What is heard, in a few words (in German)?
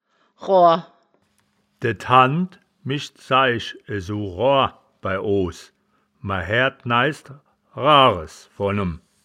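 "roar", wittlicher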